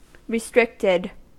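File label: "restricted", US English; pronunciations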